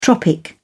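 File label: "tropic", British English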